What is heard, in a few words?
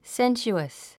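"sensuous", English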